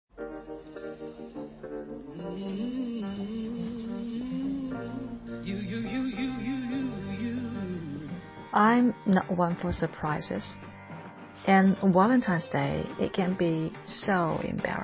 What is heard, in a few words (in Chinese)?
英语 人物 独白 情人节 广告